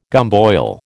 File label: "gumboil", American